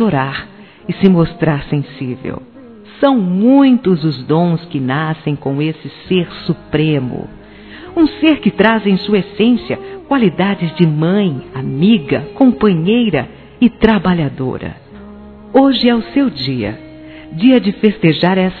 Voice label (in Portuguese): dia mulher geral fem.; Telemensagens 2017